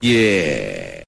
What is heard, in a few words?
"yeah" long, voice